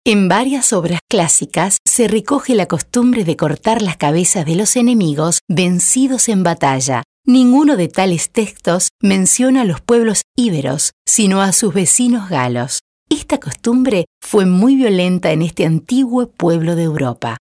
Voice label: locutora uruguaya uruguayan voiceover